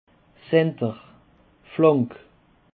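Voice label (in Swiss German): "center", Alsatian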